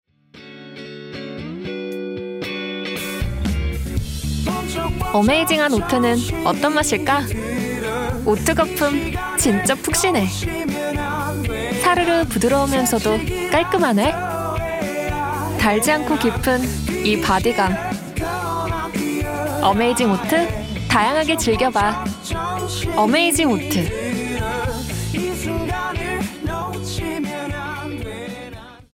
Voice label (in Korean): 광고 밝고 통통튀는; 오트밀 음료